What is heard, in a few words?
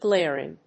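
"glaring", English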